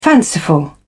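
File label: "fanciful", British English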